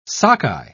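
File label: "sockeye", British English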